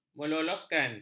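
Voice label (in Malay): "meloloskan", Malay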